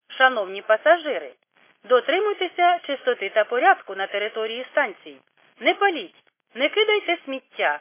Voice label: khs st female, subway station